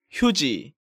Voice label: "Hyuji", Korean